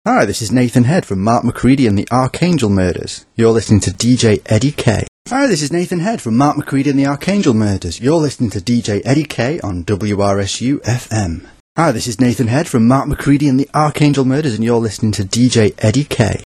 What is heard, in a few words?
ident fm; wrsu fm